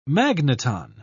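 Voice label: "magneton", British English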